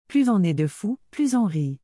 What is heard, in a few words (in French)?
"plus on est de fous, plus on rit", Canadian French; Québec; the more the merrier